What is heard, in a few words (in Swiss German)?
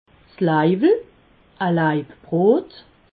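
"laiwel", Alsatian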